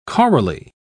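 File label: "chorally", British English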